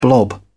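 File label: "blob", British English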